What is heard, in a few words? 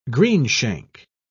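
"greenshank", British English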